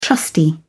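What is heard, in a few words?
"trusty", British English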